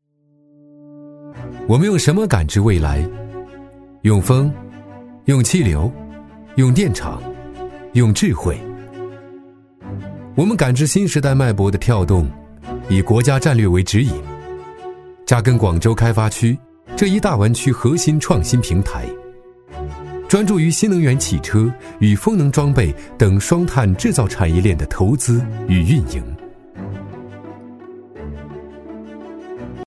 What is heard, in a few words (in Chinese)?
男 科技宣传片 感知未来 科技积极; 男369-中英双语; 科技时尚